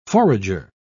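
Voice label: "forager", en, uk British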